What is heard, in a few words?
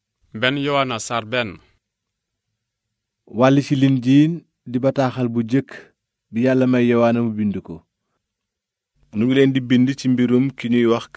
WOLOF; yowaana